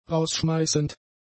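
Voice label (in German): "rausschmeißend", German; adjectives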